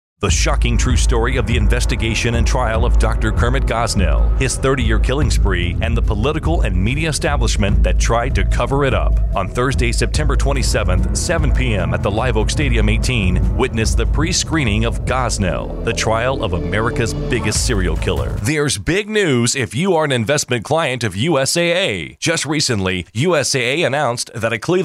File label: Voice Demo